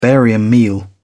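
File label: "barium meal", British English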